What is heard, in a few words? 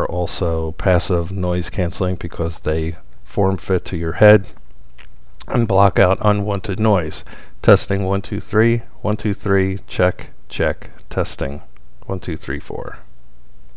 headset with andrea no enhancements